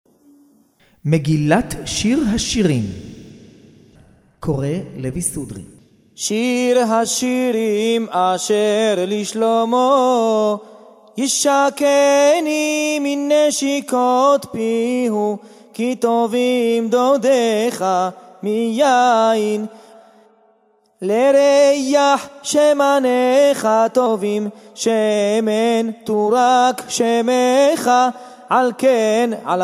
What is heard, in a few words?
recorded tanach shir hashirim sefardi moroccan; Cantique des cantiques sur rite Séfarade Marocain